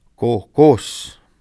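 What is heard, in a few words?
"kohkos", Haida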